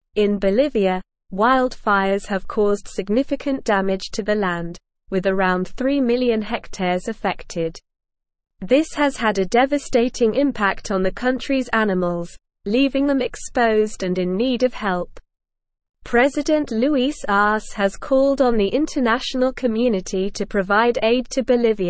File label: Advanced SLOW Reading, English; English Newsroom; Bolivias Wildfires Locals Care for Animals Seek International